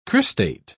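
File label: "cristate", British English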